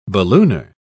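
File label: "ballooner", British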